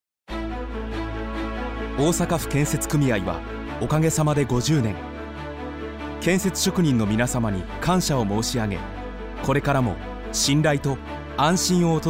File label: radio; osaka